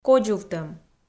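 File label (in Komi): "кодзувтӧм", Komi, female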